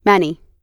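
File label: "many", US English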